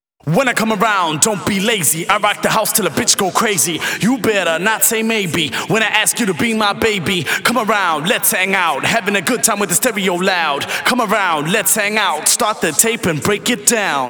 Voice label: Rap When I Come Around Vocals WET, 128 BPM; Vocal-Hook Kits